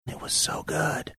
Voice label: whispering it was so good